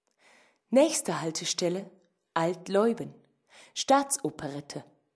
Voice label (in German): Strassenbahnansage